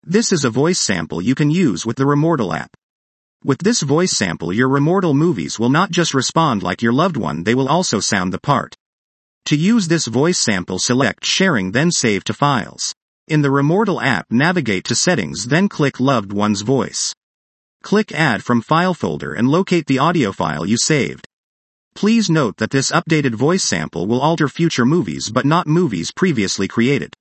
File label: us male